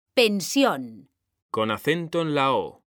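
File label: "pensión", Spanish, female; mekanta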